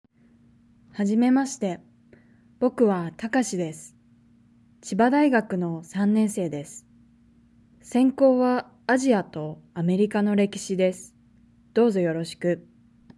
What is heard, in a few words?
Reading natural; Nakama; OJAD